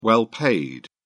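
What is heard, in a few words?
"well paid", British English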